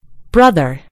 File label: "brother", en GB; gcompris